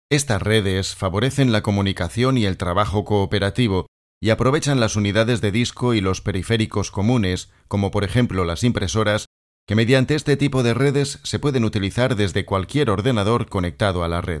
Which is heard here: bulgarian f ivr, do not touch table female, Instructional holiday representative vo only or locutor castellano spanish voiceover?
locutor castellano spanish voiceover